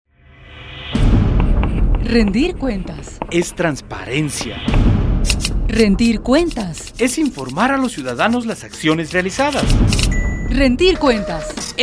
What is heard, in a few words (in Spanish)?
SPOT PUBLICITARIO; RENDICION DE CUENTAS MISION PICHINCHA 2014